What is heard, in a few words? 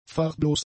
"farblos", German; adjectives